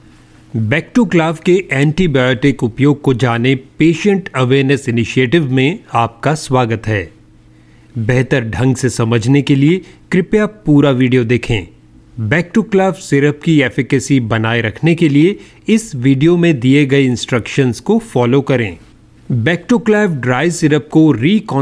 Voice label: Hindi Instructional